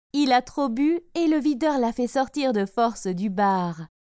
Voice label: "Il a trop bu et le videur l'a fait sortir de force", French